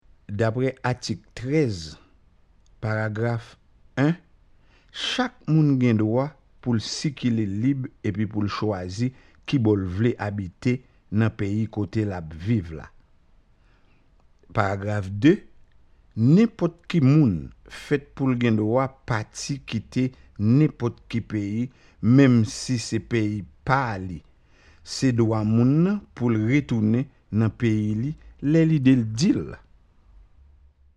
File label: Haitian creole